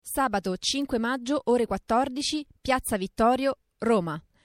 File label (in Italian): spot acqua voce